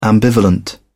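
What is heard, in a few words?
"ambivalent", English, British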